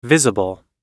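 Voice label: "visible", English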